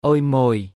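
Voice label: Khmer "អូយម៉ូយ"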